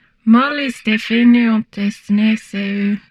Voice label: humanoid portrait female; Stellaris